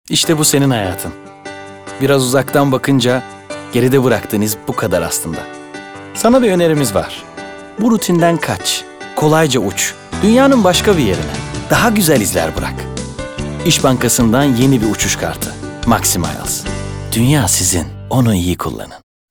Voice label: neseli esprili; seslendirme

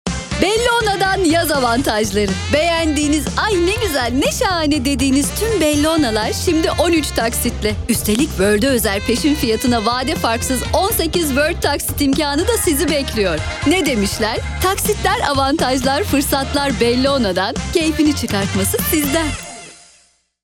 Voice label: kampanya, seslendirme

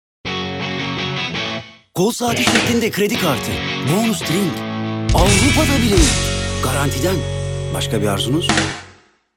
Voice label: kampanya, seslendirme

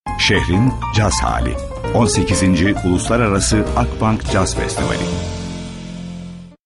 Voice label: tanitim

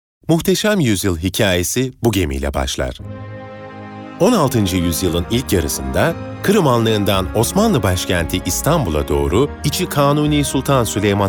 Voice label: tanintim dizi; tanitim

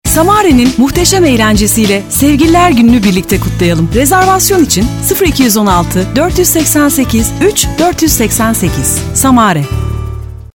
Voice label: enerjik; seslendirme

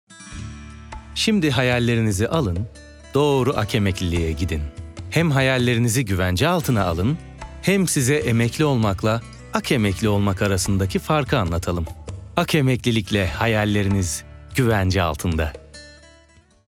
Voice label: sicak samimi

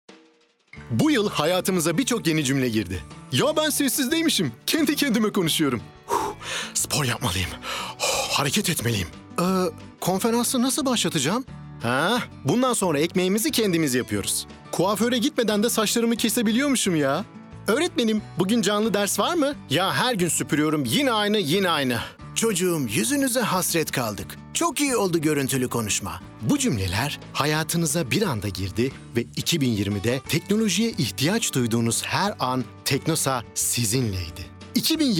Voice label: enerjik esprili, seslendirme

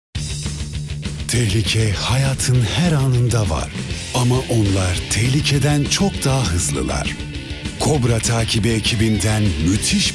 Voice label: tanitim fragman; seslendirme

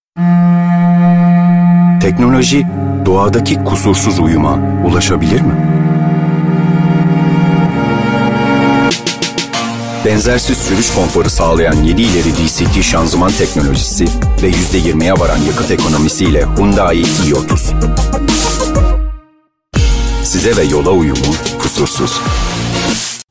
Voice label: sicak samimi

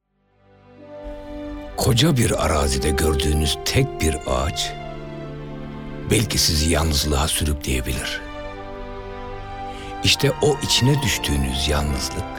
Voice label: belgesel

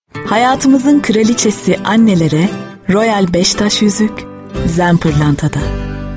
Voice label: duygusal motive

